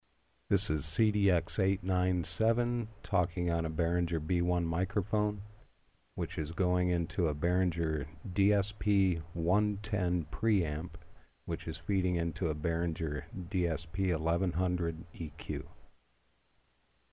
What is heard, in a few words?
behringer, microphone; b-1